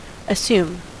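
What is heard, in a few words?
"assume", American English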